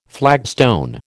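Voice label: "flagstone", American English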